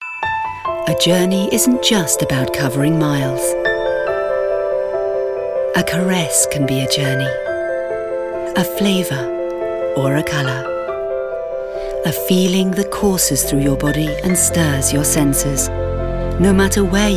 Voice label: ONLINE AD, Voice Over